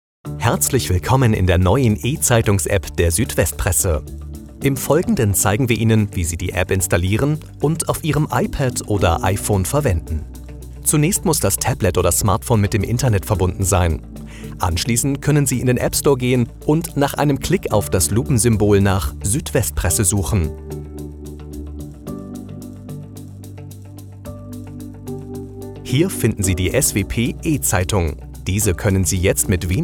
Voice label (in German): e-learning erklarfirm